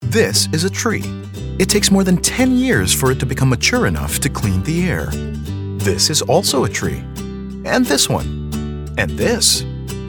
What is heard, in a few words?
English (Narration Demo Reel)